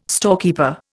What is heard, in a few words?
"storekeeper", Indian